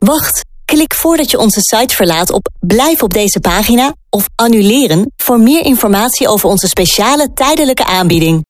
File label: Dutch; J Factory